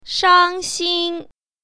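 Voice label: "shang1xin1", Mandarin Chinese Tone Pair Drills; 傷心; 糟糕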